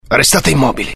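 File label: curious, Italian; mohaa; dialogue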